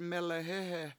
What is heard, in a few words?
"melehEhe", washo; menstrual dance stick